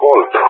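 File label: "Colt", English, GREECE; CallSign; Allied Flight; DCS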